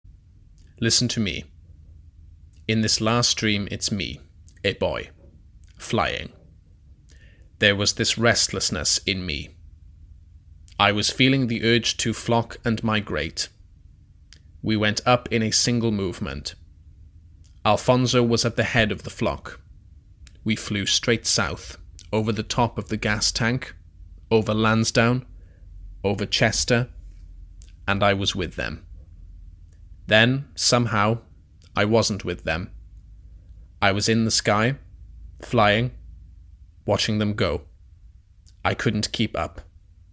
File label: Male Monologue; Birdy; Naomi Wallace